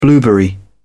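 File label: "blueberry", British English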